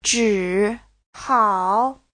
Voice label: "zhi3hao3", Chinese; (phó từ) đành phải